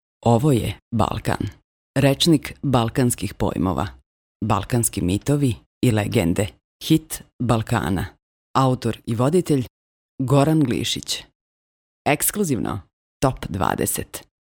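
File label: Serbian female voice over, sales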